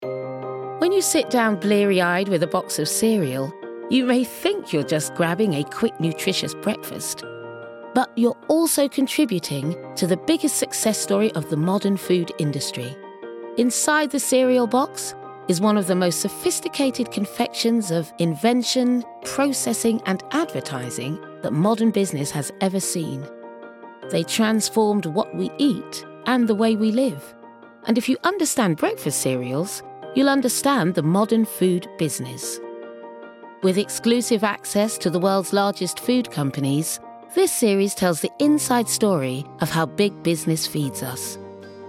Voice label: Documentary